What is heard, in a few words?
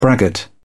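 "braggart", English, British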